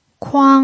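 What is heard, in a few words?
"kuang" first tone, pinyin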